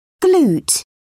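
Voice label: "glute", English, British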